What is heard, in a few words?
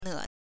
"呢" ne, chinese